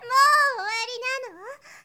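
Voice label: "mouowarinano", Japanese, girl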